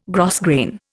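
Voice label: "grosgrain", Indian English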